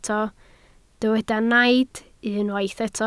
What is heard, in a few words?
"naid", Welsh